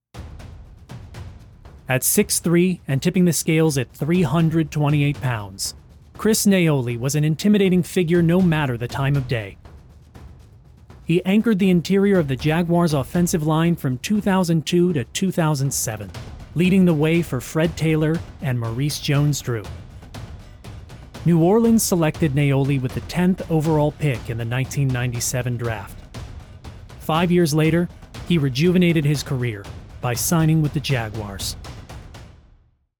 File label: Promo; Jacksonville Jaguars